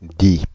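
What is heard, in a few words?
"Paris Die", French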